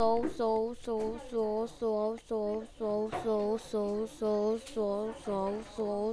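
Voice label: "also", spoken word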